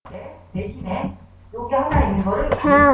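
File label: "can", spoken word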